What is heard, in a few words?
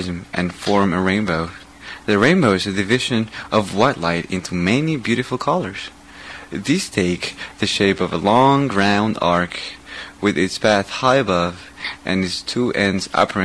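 chile, International Dialects of English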